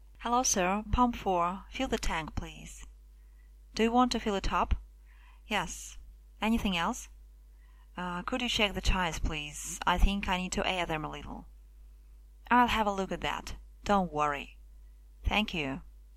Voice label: dialog gas station